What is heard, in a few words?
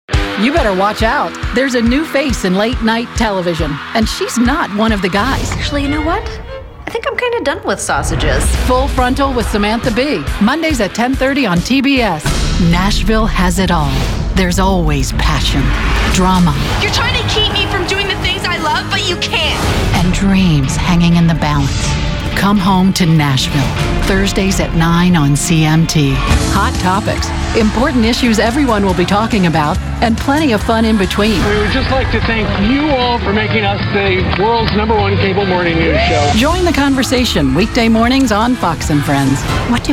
Female VO Promos